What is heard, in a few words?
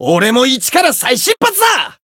variable chant, jp